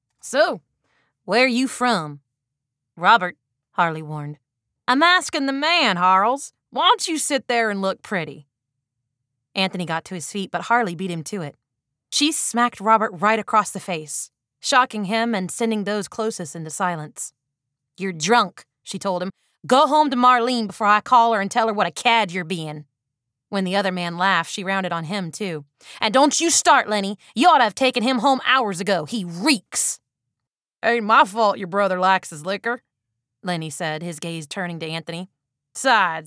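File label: Mystery 3rd person 1 female 2 male southern accent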